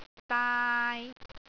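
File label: "daai3", Cantonese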